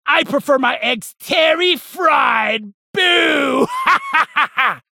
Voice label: Halloween Slap Ghost Spacerig